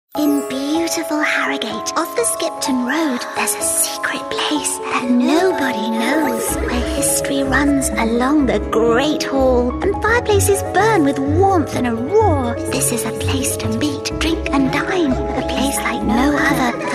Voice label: christmas fairy voice